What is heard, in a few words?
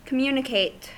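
"communicate", American English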